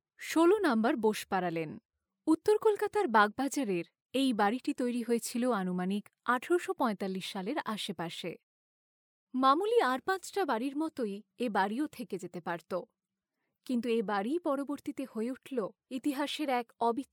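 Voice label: Bengali, Bangladesh, female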